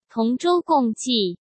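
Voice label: "同舟共济", Mandarin Chinese; chinese flashcards; hsk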